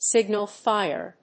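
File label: "signal fire", English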